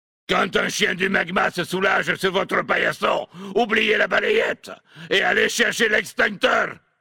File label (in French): Homme Blague; Chien du magma; Nain sombrefer